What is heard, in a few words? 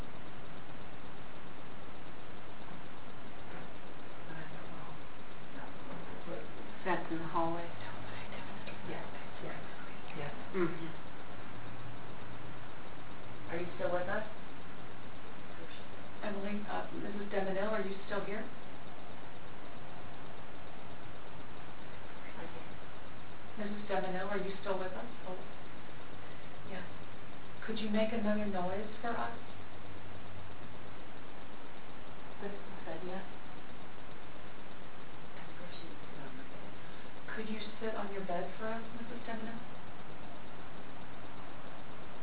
rm whispering throughout